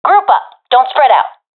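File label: request stick together, professional female; vo; Counter Strike Model Pack; CS:GO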